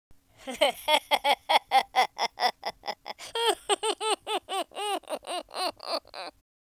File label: happy, speech, US Sports